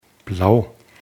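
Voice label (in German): "blau", German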